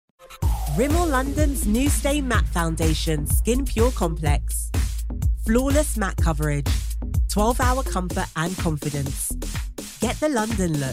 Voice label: female british voice over sales; english british

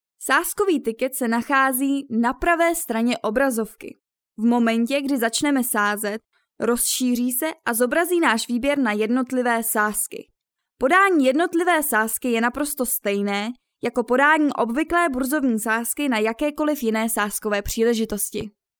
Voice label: female czech voice over narrative

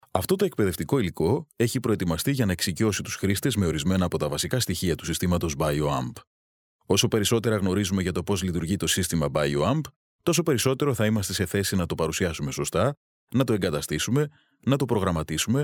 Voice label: male greek voice over talent narrative

